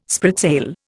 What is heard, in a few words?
"spritsail", Indian